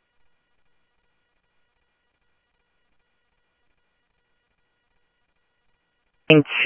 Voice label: Police, scanner; ScottsdalePolice; H-Scottsdale; SCTSDLE PD - PD2